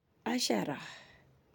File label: "ten", Arabic